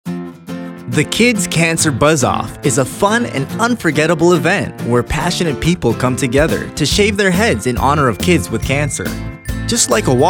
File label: Male Voiceover